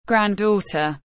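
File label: "Grand daughter", English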